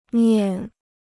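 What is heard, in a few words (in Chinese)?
"念", Chinese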